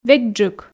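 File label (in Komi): "векджык", Komi, female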